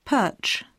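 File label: "perch", English; poitiers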